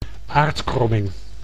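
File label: "aardkromming", Dutch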